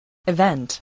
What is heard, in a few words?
"event", voice, english